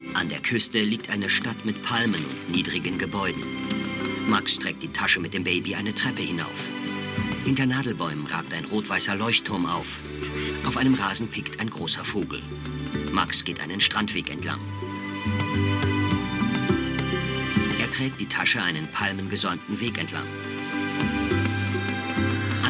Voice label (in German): Audiodeskription